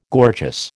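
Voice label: "gorgeous", American English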